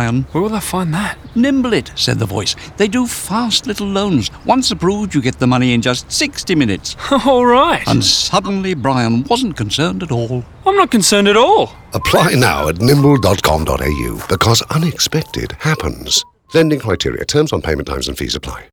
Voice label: Narrator; Nimble Life